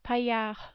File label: "paillard", French